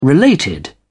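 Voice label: "related", British English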